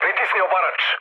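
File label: Serbian attack position mood low, speech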